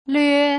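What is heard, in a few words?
"lue", Putonghua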